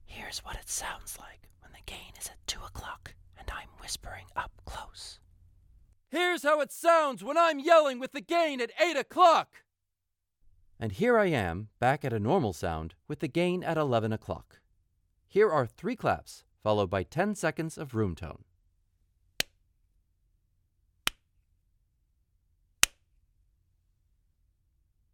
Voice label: Studio Sample